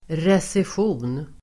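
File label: "recession", Swedish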